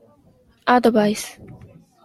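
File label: "advice", Japanese, female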